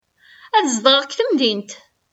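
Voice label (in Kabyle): Ad zedɣeɣ deg temdint., Kabyle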